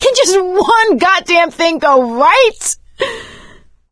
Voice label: reaction negative, survivor voice; Left 4 Dead 2; producer